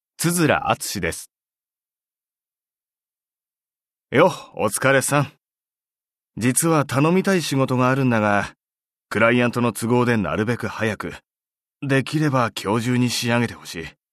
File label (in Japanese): voiceactor man